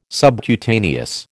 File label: "subcutaneous", American